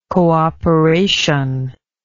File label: "cooperationist", English